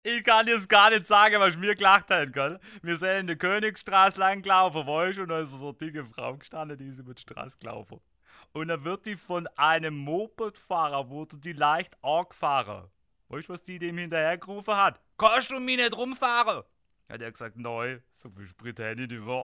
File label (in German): schwaebisch dicke frau